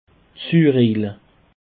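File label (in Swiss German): "zurejele", Alsatian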